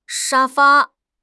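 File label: "sha1 fa1", Mandarin Chinese; HSK4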